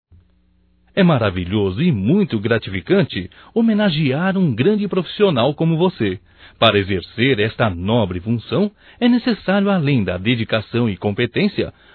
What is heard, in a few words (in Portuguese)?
Dia Reporter Masculino Masculino; Telemensagens; Só Voz; DATAS COMEMORATIVAS